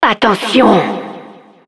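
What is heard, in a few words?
Announcer warning, French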